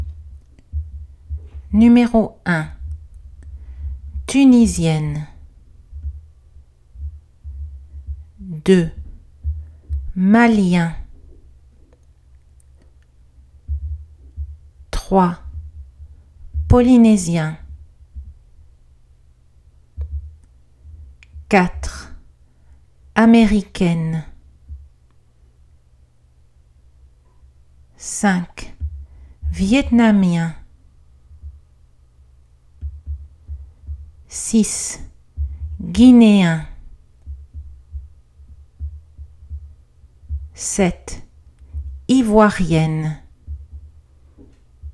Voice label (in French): nasales genre; les bandes dessinees; les voyelles nasales